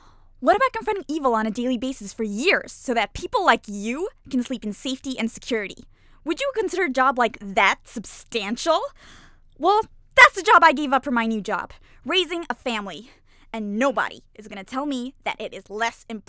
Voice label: Animation; Elastigirl